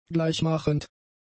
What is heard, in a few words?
"gleichmachend", German; adjectives